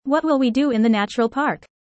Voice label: fast